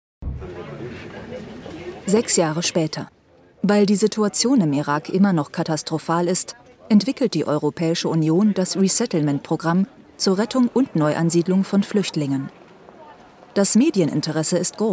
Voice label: docu OFF german; tv documentary